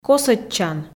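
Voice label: "косӧдчан", Komi, female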